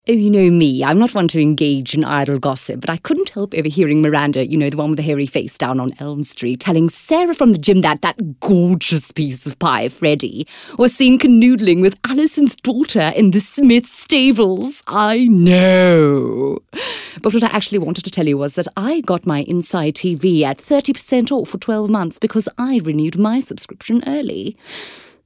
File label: Conversational British Neutral